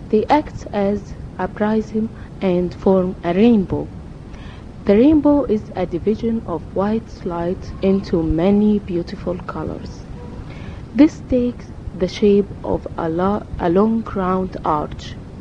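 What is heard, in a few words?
kuwait, International Dialects of English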